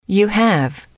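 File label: you have informal, female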